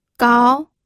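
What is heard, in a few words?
"gao", Mandarin Chinese, second tone; pinyin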